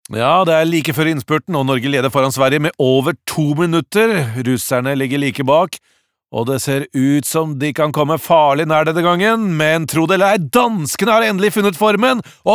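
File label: Norwegian dub commentator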